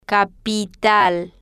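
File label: "capital", Spanish, female, slow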